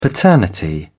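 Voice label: "paternity", British English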